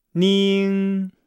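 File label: "ning", Chinese; Nihao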